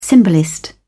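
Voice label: "symbolist", English, British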